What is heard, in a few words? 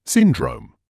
"syndrome", English